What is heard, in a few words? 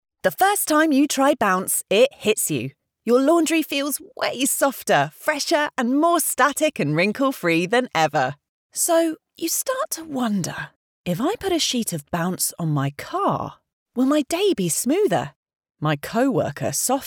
dry commercial; Bounce